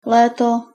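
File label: "léto", Czech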